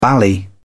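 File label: "bally", British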